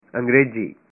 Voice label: "English", punjabi